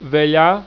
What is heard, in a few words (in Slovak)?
"vel^a", Slovak